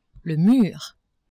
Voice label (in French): "mur", French; maison